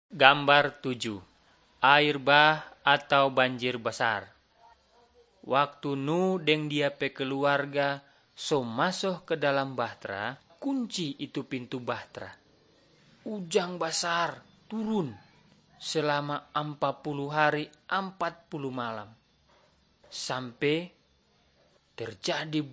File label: malay manado; good news